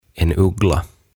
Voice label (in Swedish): "En Uggla" "Pöllö"